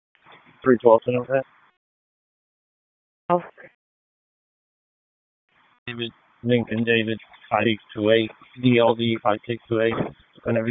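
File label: Police Dispatch; Raleigh Police North District Disp; wake; p25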